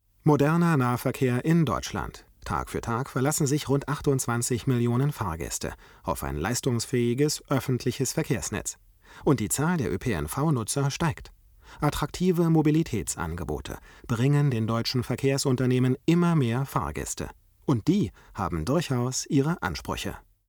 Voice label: mkh 416 neutral; tegeler; dhd bearb